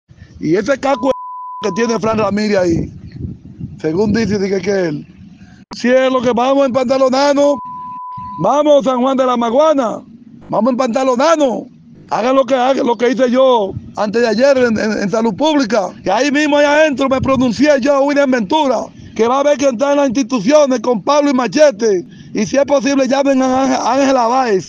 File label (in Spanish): Nota de voz